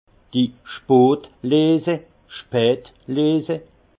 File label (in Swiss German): "spotleese", alsace; vin; vendanges tardives